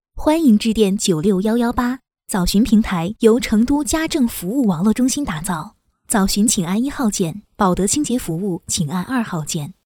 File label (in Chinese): 彩铃-家政; 成都家政